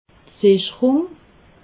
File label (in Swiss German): "sicherung", alsace